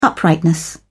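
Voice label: "uprightness", British English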